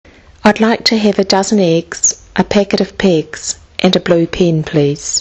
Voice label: i'd like to have a, kiwi english

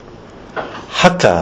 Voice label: "haka", kiwi english

